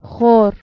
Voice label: "xor", Persian